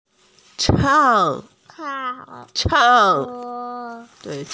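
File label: "chang4", Mandarin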